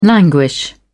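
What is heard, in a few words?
"languish", British English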